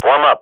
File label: radio bot regroup, Counter-Strike swat; Global Offensive; player vo